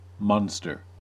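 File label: "monster", US English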